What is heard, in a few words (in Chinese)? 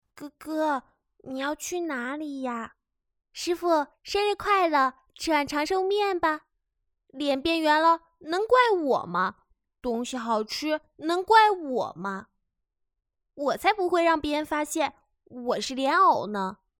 萝莉音合集